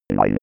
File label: robot counting